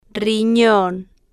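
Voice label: "rinon", Spanish, female, slow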